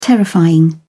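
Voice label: "terrifying", English, British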